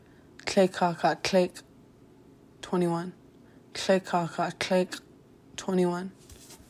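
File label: "twenty one", Tlingit; numbers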